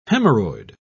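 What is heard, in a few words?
"haemorrhoid", British English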